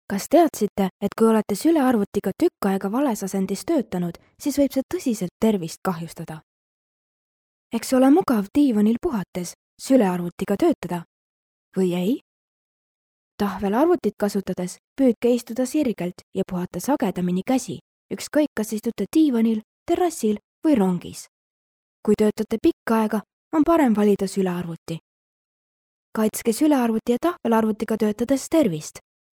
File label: female estonian voice over narrative